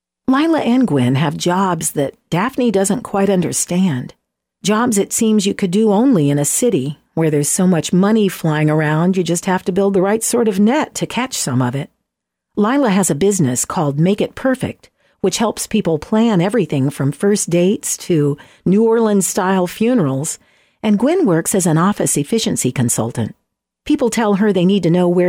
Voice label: hard limited audiobook excerpt; hard limiting of a vox only file